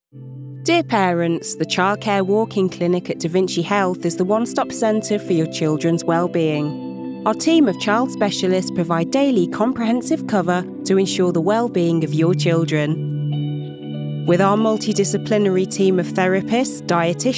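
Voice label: explainer, British English Voice Over female, UK accent; Native British English voice artiste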